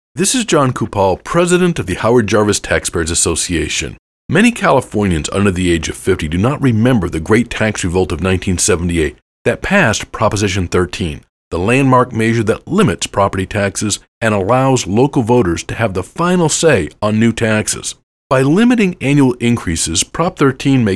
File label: Radio; Prop 13 Helps all Homeowners